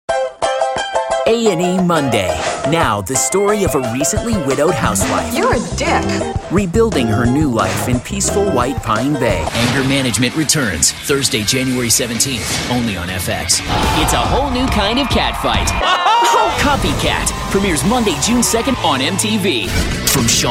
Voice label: promo, men